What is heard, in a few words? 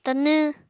"Tanw", apatani